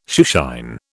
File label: "shoeshine", British